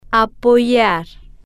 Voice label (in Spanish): "apoyar", Spanish